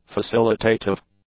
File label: "facilitative", english; adjectives